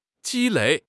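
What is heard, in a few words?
"ji1 lei3", Mandarin Chinese; HSK4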